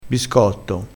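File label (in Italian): "biscotto", vocabolario dialettale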